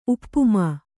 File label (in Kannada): "ಉಪ್ಪುಮಾ", Kannada